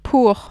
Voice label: "pour", French

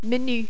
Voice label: "menu", French

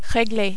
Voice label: "regle", French

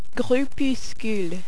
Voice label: "groupuscule", French

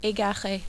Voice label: "egare", French

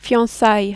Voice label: "fiancailles", French

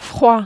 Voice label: "froid", French

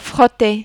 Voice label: "frotter", French

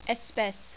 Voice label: "espece", French

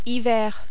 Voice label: "hiver", French

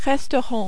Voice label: "restaurant", French

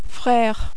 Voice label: "frere", French

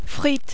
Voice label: "frites", French

